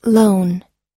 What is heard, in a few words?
"loan", us; 貸し付け、貸すこと